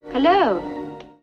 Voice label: "hello", female; expletives